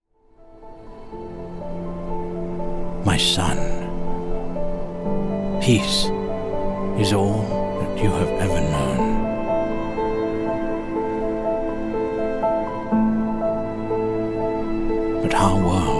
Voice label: english british animation